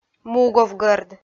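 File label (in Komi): "муговгӧрд", Komi, female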